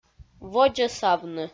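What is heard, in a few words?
"водзӧсавны", Komi, female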